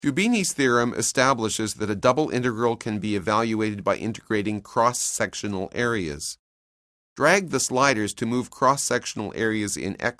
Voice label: narration; CALCULUS 7E; cengage